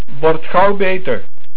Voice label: "Beter", Dutch

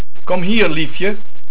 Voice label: "Liefje", Dutch

